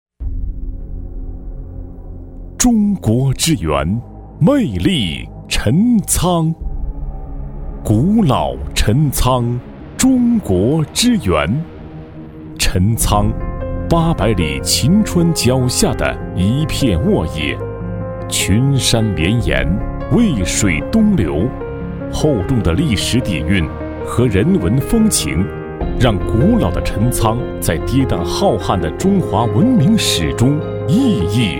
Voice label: 男 （舒缓力度）

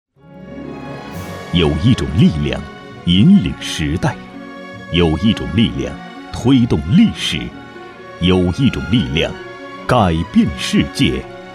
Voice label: 男 中凯公司升降设备（大气）

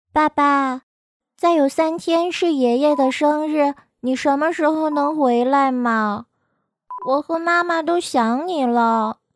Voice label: 女 女童给爸爸打电话

